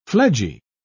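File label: "fledgy", British English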